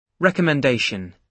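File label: "recommendation", British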